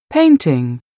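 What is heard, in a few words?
"painting", English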